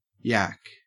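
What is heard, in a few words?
"yack", Australian English; pronunciations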